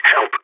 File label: help, balkan